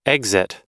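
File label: "exit", English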